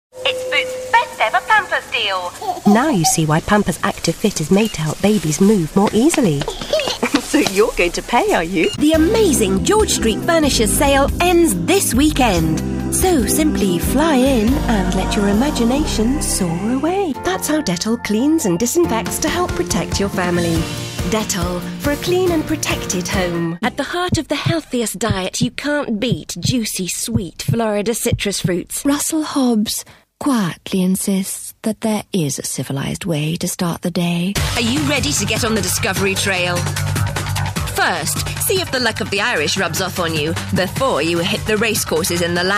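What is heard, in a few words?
commercials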